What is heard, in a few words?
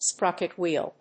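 "sprocket wheel", English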